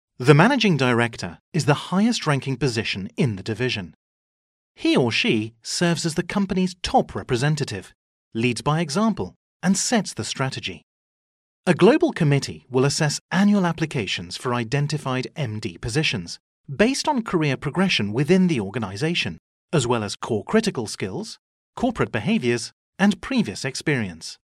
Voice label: english british voiceover locutor ingles; English voice talent

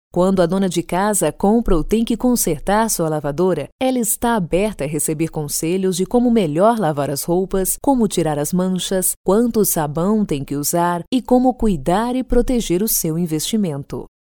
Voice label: locutora brasil brazilian voiceover

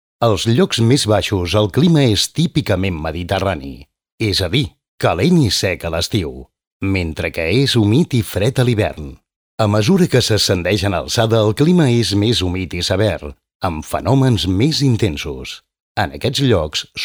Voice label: locutor catalan voiceover

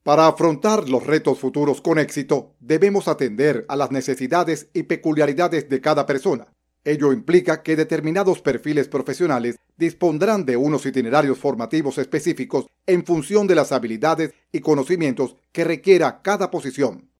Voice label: locutor venezuela venezuelan voiceover

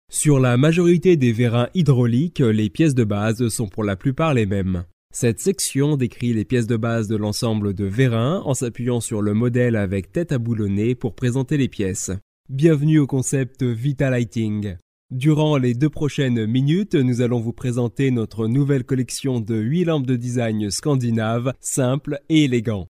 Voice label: locutor frances french voiceover

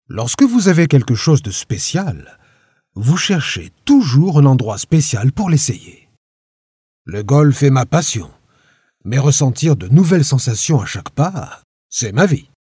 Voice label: french voiceover locutor frances

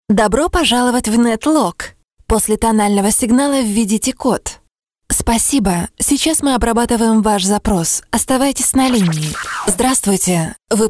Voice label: russian voice over

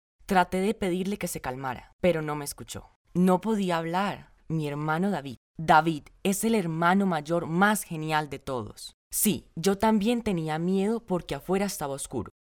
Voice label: latin american teen voiceover

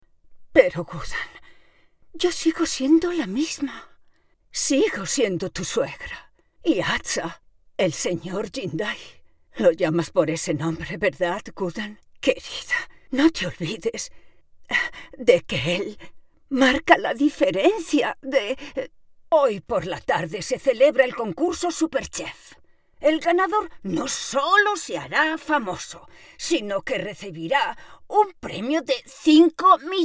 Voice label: locutora spanish voiceover

